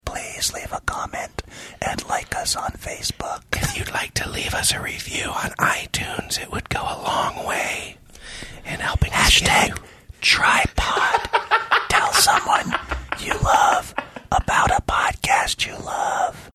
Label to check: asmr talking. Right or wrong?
Right